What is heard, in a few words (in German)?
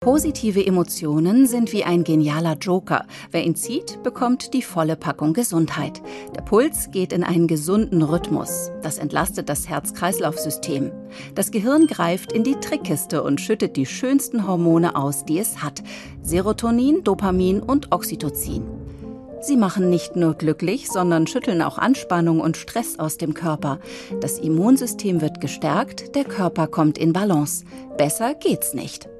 Doku; ARD; Liebevoll Grafik